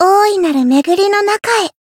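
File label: Voice win, Japanese; yukino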